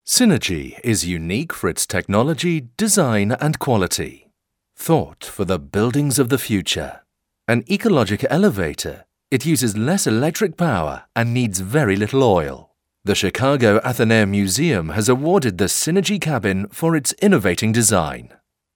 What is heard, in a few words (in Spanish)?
locutor ingles english voiceover